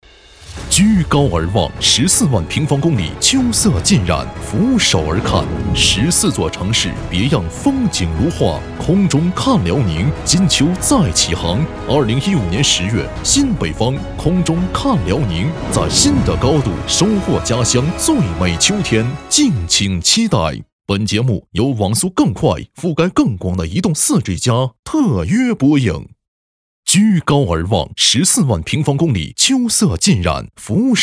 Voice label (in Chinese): 男 电视片花; 空中看辽宁